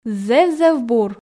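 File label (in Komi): зэв-зэв бур, Komi, female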